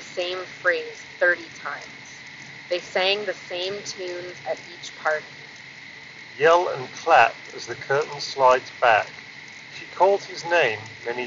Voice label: Jabra Elite 5 Wind microphone sample

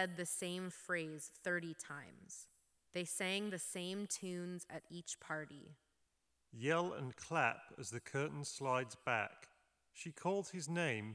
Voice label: Reverb; Turtle Beach Battle Buds

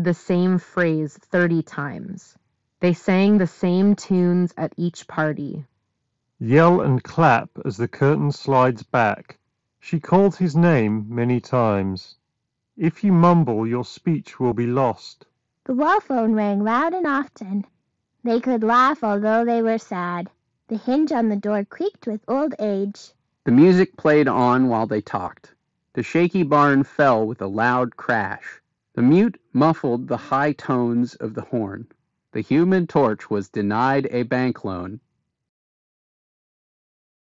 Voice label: Beyerdynamic Aventho 300 Ideal

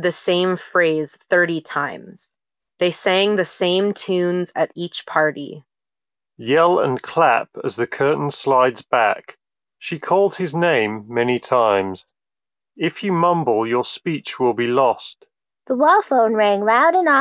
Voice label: Ideal microphone sample; Anker Soundcore Liberty 4 NC

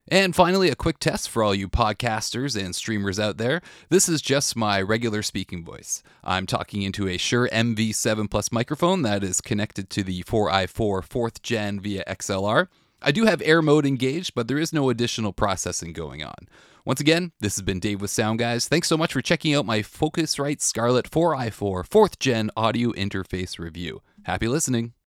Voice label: Speaking Voice Demo Air Mode Engaged; Scarlett 4i4; focusrite

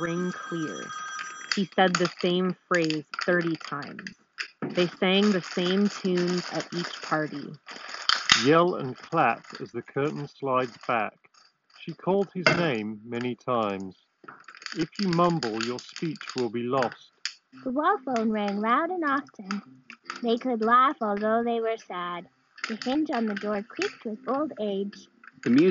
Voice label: Beats Fit Pro Office microphone sample

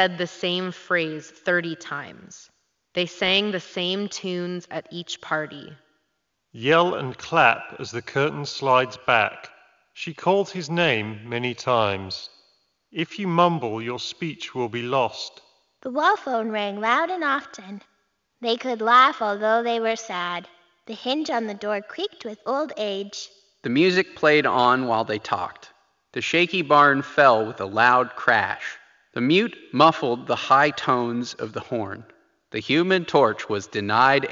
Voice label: Reverb microphone sample; Logitech G535

